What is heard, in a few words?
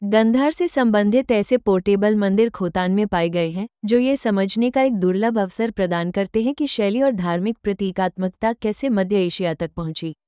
Hindi Voice